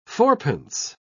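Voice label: "fourpence", English, British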